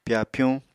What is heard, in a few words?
"pyapyuñ", Apatani